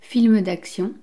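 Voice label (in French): "film d action", French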